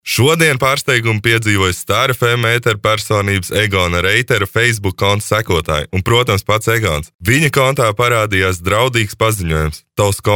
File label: latvian commercial